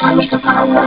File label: robo talk